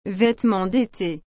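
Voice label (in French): "vêtements dété", French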